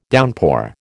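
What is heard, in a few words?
"downpour", American English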